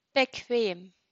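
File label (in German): "bequem", German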